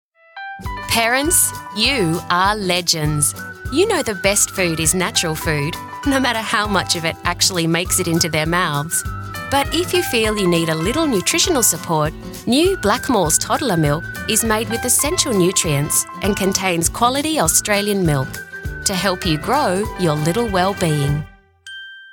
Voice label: soft natural fun playful mum soft sell

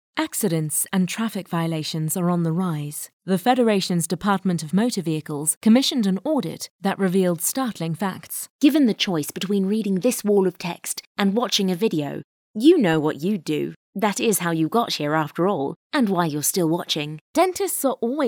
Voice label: Narrative

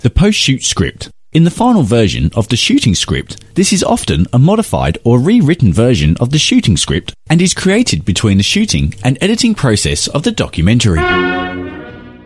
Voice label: Doco

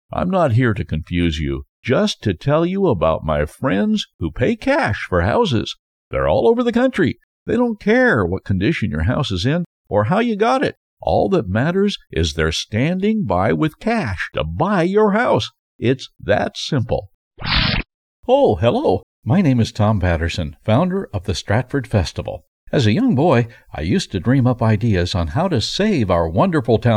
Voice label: Natrual Speak

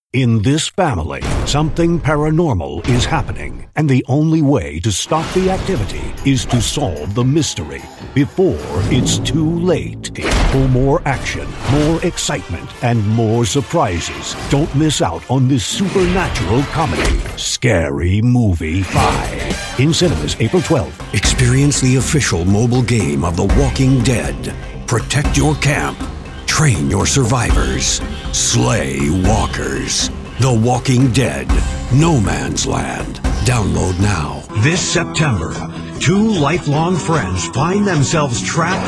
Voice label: Trailer; Move and Game

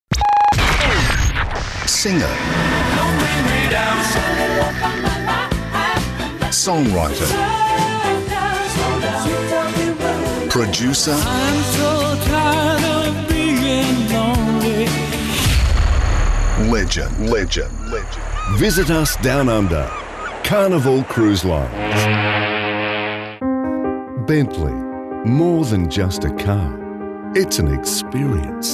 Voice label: Commercial Showreel

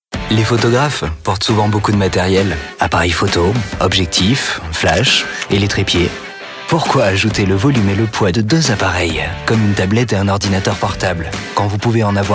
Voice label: CORPORATE WEB; Surface Pro 4

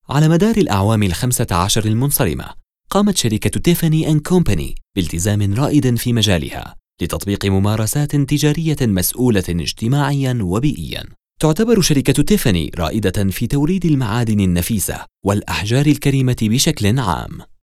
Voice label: Company Video

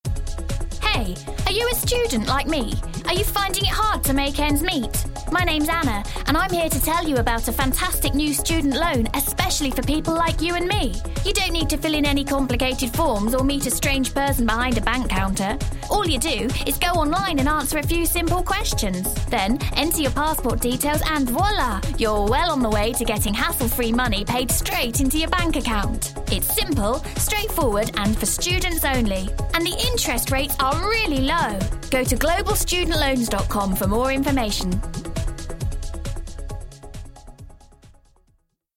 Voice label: Natural Speak

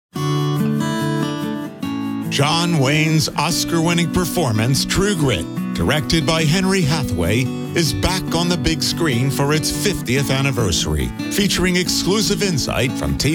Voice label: Trailer; True Grit

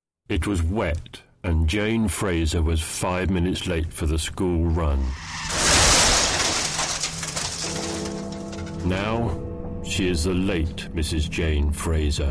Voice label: Road Safety Commercial

